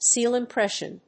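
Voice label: "seal impression", English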